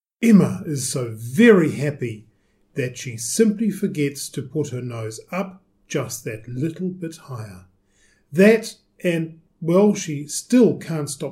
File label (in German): englisch